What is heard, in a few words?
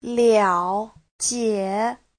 "liao3 jie3", chinese